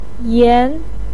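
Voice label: "yan2" Chinese syllable, pinyin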